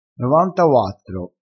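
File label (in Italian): "novantauattro", dialettale crespinese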